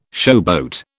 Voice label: "showboat", British